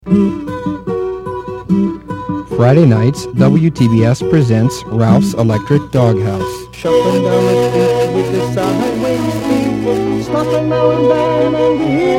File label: Promo